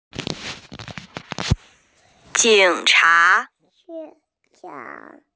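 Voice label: "police", hearing impaired; jing3cha2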